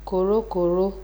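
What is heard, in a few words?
"korokoro", Nigerian Pidgin, female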